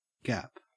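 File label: "gap", Australian English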